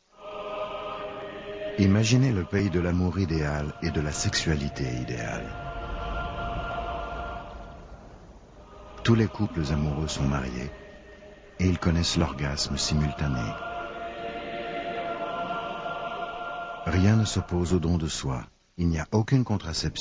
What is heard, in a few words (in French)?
Narration